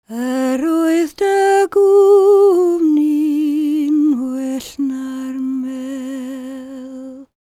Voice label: WELSH F, World Voices